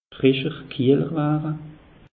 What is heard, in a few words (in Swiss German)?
"frischer", alsace; meteo